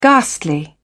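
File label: "ghastly", British English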